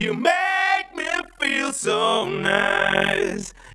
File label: Male FX Vocals; Houseworx